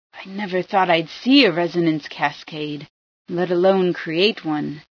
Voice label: scan, female

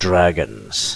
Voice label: "dragons", word

